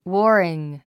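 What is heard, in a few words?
"warring", English; wɔ́ːriŋ ウォーリン; 交戦中の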